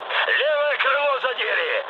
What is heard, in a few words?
left wing, mood med, speech ru; War Thunder